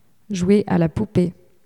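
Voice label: "jouer a la poupee", French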